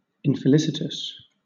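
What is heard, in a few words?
"infelicitous", British English; pronunciations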